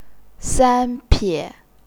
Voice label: "san1 pie3", chinese pinyin

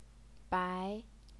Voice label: "bai2", Chinese pinyin

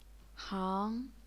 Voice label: "hang2", Chinese pinyin

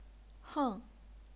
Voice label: "heng4", chinese pinyin

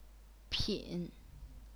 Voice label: "pin3", chinese pinyin

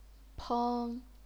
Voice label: "peng1", chinese pinyin

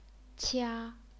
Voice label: "qia1", chinese pinyin

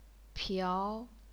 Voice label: "piao2", chinese pinyin

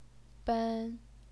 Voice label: "ben1", Chinese pinyin

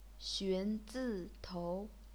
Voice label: xun zi tou, chinese pinyin

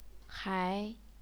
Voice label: "hai2", chinese pinyin

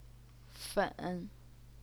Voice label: "fen3", chinese pinyin

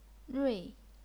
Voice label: "rui4", chinese pinyin

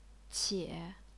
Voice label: "qie3", chinese pinyin

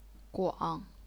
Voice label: "guang3", chinese pinyin

